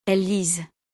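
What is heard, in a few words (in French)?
"elles lisent", French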